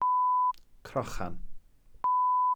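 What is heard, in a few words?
"crochan", Welsh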